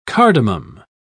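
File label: "cardamum", British English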